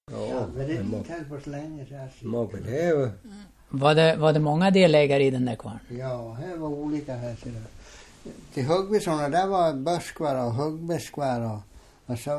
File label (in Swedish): Mockfjärd, dialekter; Svenska sagor; DAL; Folkminnen